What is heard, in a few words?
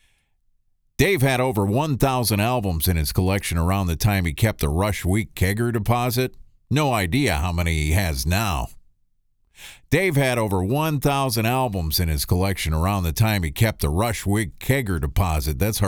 Character, DRY; imaging